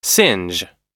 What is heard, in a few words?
"singe", US English